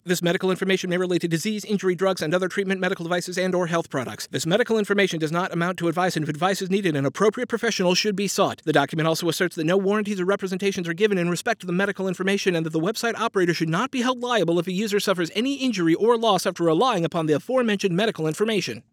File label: Speed Read